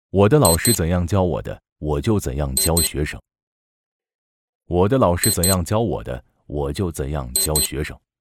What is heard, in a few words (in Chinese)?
角色男教师